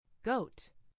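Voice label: "goat", English; FoodChain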